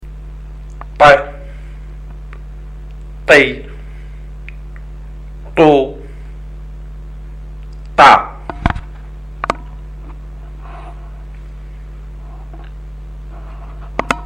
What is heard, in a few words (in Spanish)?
TTAUN CON VOCALES, ARABE; ARABE A1; ALFABETO; LETRAS CON VOCALES